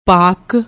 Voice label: "pakk", Punjabi